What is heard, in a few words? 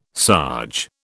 "sarge", British